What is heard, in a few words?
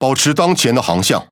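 Chinese (Taiwan) Speech Pilots Bomber Airborne; World Of Warships; ZH TW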